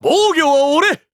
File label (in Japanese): VO jp